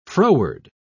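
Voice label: "froward", British English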